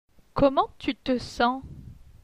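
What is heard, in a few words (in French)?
"Comment tu te sens", French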